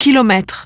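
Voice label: "kilometers", English; iOS 4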